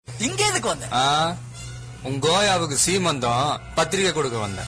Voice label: Vettaikaran comedy